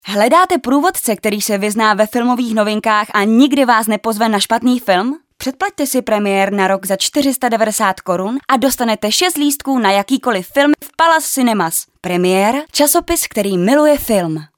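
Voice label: female Czech commercial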